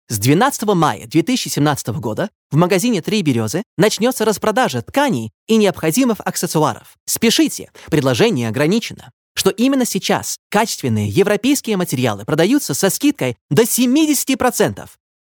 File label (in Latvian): RU Reklama